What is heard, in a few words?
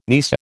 "nisei", American